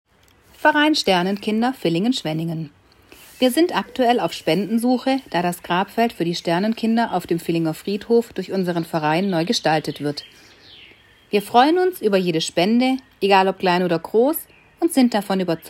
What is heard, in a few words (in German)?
Radiowerbung